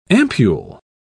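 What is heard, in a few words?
"ampul", British English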